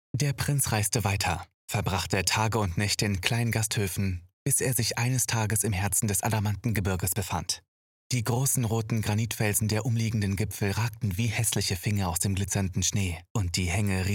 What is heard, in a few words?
Narration German